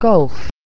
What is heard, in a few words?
"golf", English